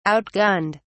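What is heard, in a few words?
"outgunned", British English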